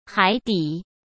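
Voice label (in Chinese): "海底", Mandarin Chinese; hsk; chinese-flashcards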